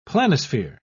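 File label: "planisphere", British English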